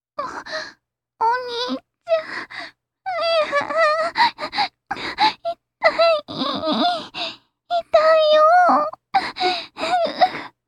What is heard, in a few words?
adult